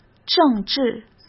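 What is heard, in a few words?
"政治", Mandarin Chinese; hsk; chinese-flashcards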